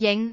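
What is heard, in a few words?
"jing5", Cantonese; microsoftHiuGaai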